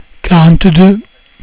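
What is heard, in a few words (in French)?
"quarante-deux", French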